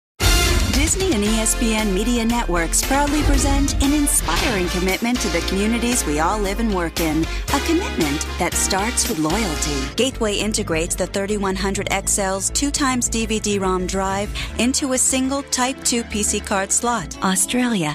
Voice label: narration, women